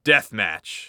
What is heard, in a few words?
Announcer Mode DeathMatch